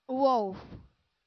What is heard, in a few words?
"wolf", English